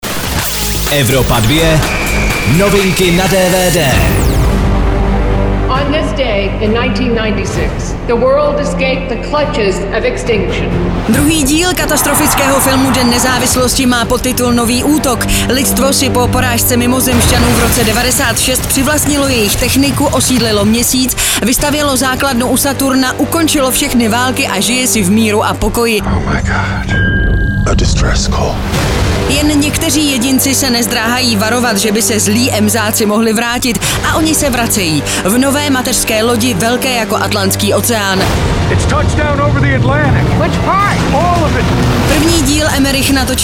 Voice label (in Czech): DVD NEWS; evropa2; Den nezávislosti Nový útok